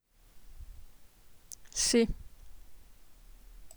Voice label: "ses", French